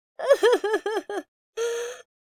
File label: lose loop, speech, Hippy